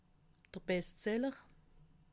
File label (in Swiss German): "bestseller", alsace